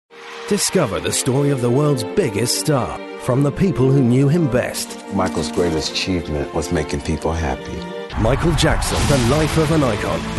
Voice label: promo